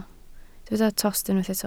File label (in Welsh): "tost", Welsh